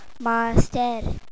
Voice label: teacher, punjabi